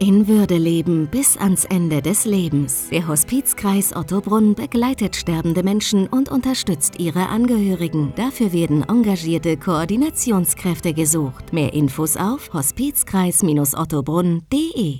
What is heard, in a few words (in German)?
Funkspot, Radiospot; Hospizkreis Ottobrunn